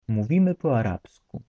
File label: polish we speak Arabic, polish